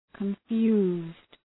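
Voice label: "confused", English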